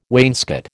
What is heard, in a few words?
"wainscot", American